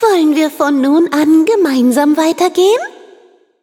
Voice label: levelup, de; Cookie Run

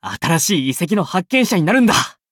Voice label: upgrade, ja; cookie run

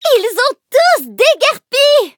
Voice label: victory, fr; cookierunwiki

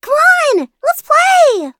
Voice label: touch, en; cookierun

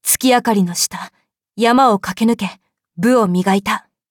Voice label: upgrade, ja; cookie run

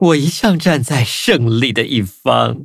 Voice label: victory, zh-hant

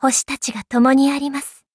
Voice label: levelup ja; cookie run

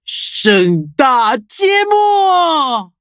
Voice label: touch, zh hant; Cookie Run

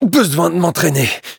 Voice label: hit fr; Cookie Run

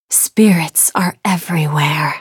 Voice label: levelup en; cookie run

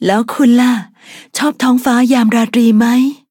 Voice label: levelup, th; cookie run

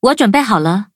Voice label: loading, zh-hant; Cookie Run

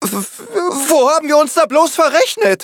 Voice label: defeat, de; Cookie Run

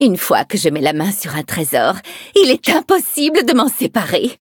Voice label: levelup, fr; cookierun

